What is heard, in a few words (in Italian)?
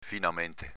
"finamente", Veneto